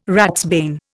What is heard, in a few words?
"ratsbane", Indian